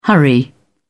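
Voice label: "hurry", English, British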